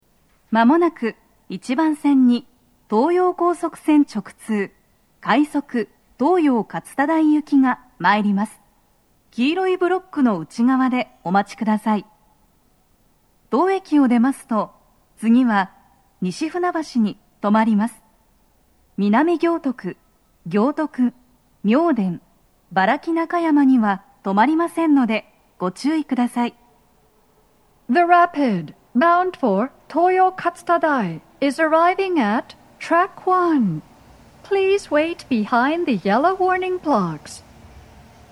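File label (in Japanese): 接近放送, 女声, 待合室, 放送は「快速 東葉勝田台行き」です。; TOA弦型での収録です。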